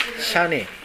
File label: "shane", minamiyamagata